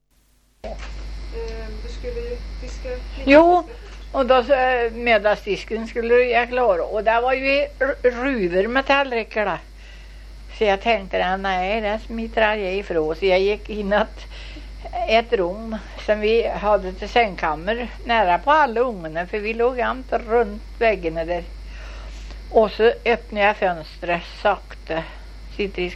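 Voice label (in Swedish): Köla Värmland, dialekter; Svenska sagor; Folkminnen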